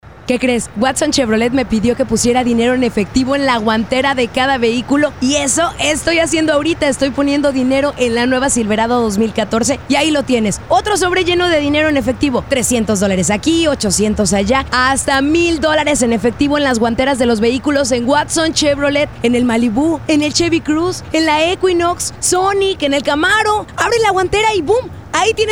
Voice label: Spanish Radio; Dash Cash